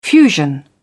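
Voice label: "fusion", British English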